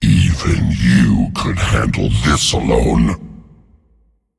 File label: Male Demon; Prince Malchezaar